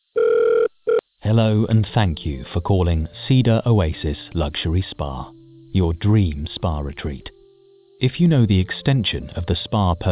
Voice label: IVR phone